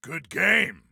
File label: Compliment Good Game, Soldier Male; Soldier Male 03